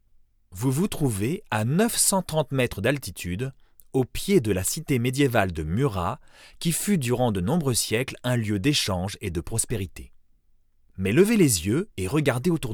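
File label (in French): audioguide medium pose, voix off masculine; Je peux adopter tous les styles avec ma voix off masculine naturelle, posée, souriante ou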